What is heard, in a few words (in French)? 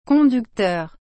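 "conducteur", French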